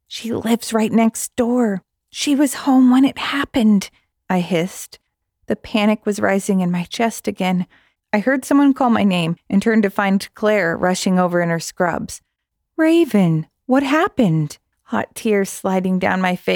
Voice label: Audiobook